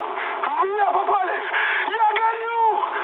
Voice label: on fire, mood high, speech ru; War Thunder